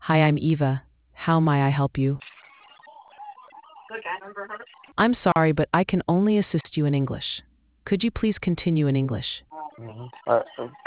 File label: conversation